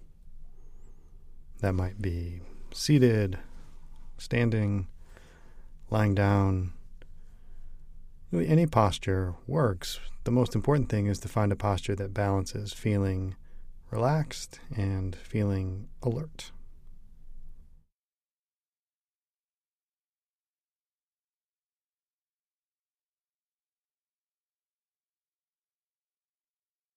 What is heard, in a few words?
5 min breath awareness bells